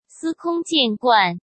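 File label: "司空见惯", Mandarin Chinese; hsk; chinese-flashcards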